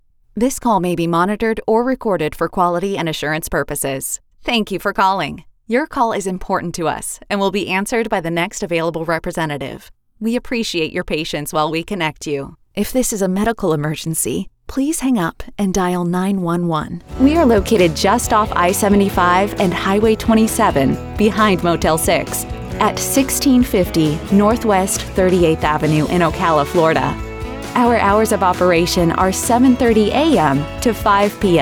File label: On hold, voice over